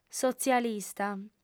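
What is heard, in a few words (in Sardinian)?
"sotzialista", female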